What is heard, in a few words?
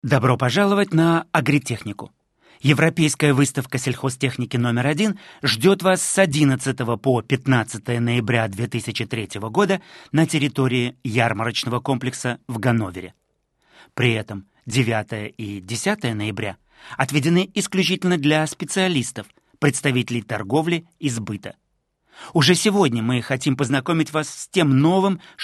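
Russian, male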